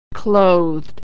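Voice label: "clothed", American English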